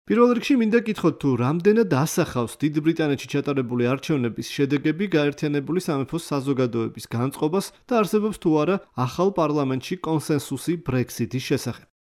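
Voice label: Georgian, male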